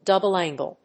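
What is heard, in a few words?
"double angle", English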